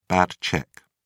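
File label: "bad cheque", British English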